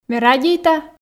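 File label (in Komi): ме радейта, Komi, female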